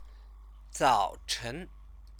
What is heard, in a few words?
"zaochen", Chinese; 早 晨; early morning; noun, time word; Chinese lessons